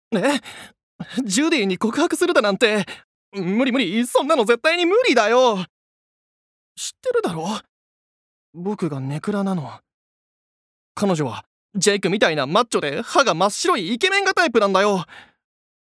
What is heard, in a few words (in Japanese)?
外画